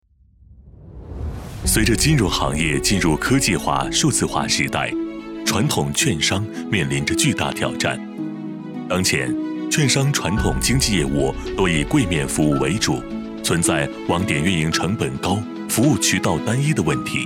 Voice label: 男磁性的嗓音金融商务宣传片; 国泰君安

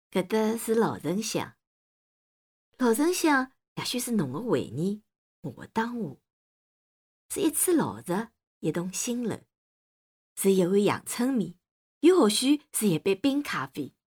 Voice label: 上海方言女

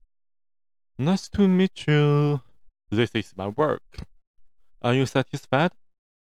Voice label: 英语

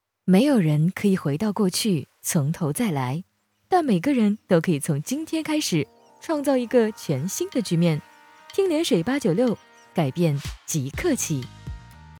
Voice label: 电台台宣

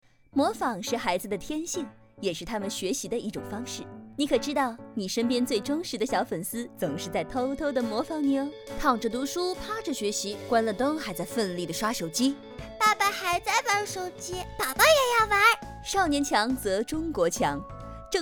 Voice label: 护眼 公益

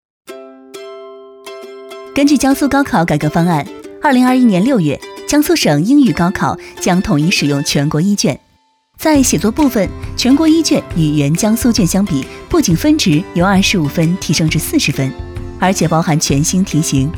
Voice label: 高考宣传试音; 江苏

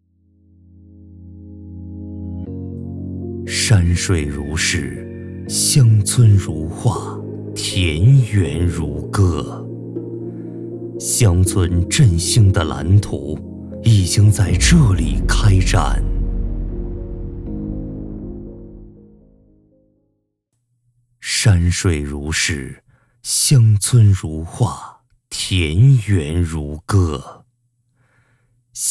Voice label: 高级感大气厚重

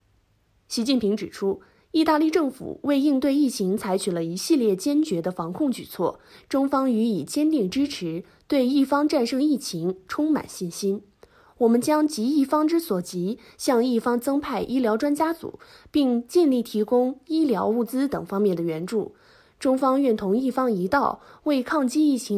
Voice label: 新闻

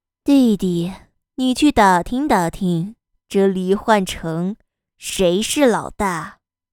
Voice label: 御姐音

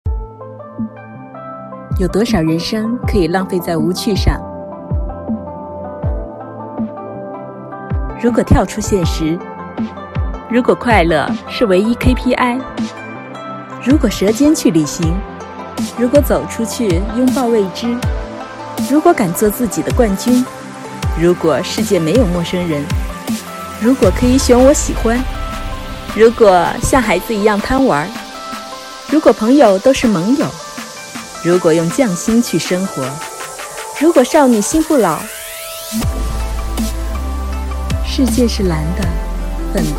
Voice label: 航空宣传片

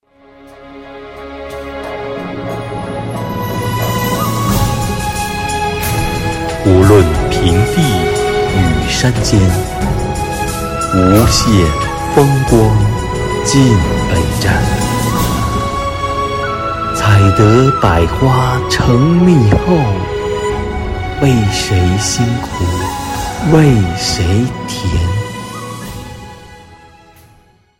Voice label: 白话朗诵

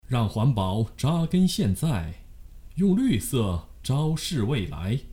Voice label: 广告语

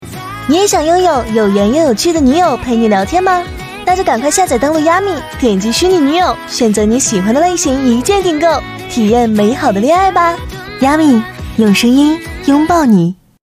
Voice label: 温柔片尾

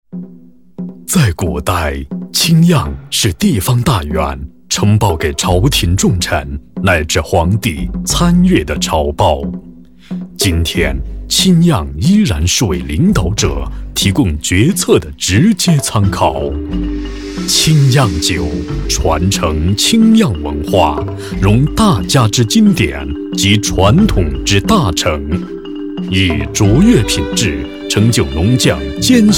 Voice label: 广告 央视; 清样酒

